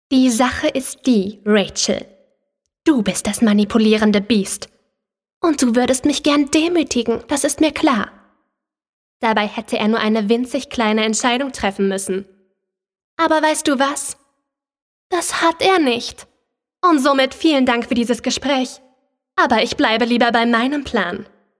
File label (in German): Synchron